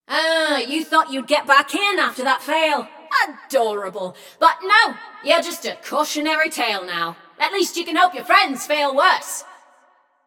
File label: Scrap Run Inform Runner Eliminated, Announcer; Flux